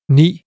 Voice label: "9", Danish